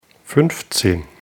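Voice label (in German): "fuenfzehn", German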